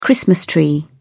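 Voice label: "christmas tree", British English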